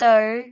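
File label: "deoi5", Cantonese; microsoftHiuMaan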